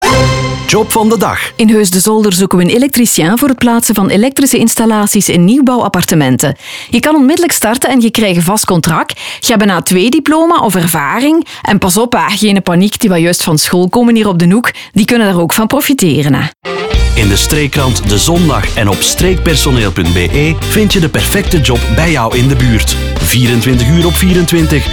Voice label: radio; streekpersoneel